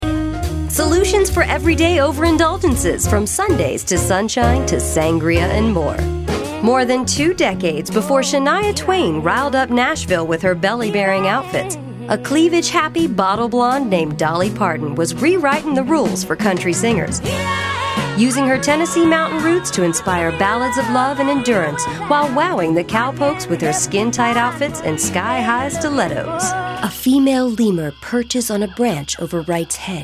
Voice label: TV Narration